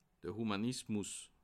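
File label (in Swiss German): "humanismus", Bas Rhin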